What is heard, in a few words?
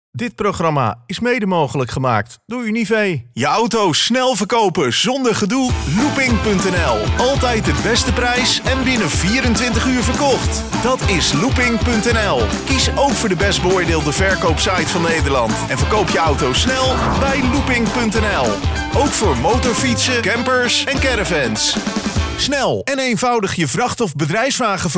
male dutch compilation